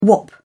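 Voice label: "wop", British English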